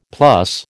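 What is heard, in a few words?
"plus", American English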